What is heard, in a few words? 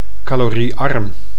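"caloriearm", Dutch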